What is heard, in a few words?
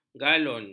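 "galon", Malay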